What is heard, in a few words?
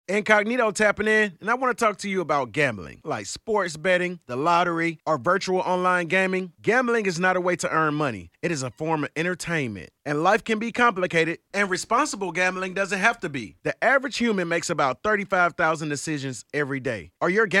radio spot know the risk